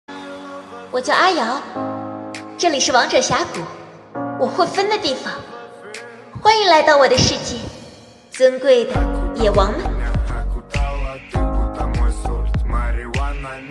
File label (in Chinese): 配音角色; 王者荣耀瑶